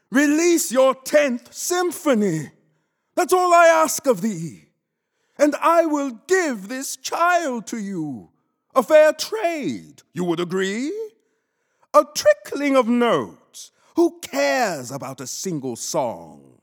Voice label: narration